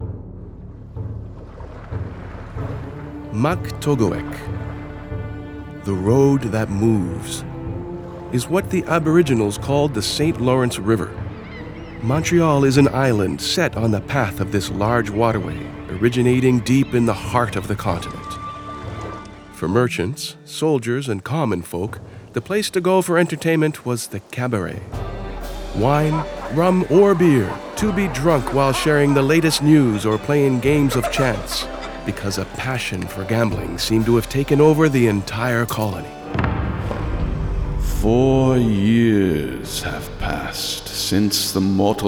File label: narration